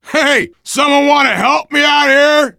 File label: ledge hang start, voice, biker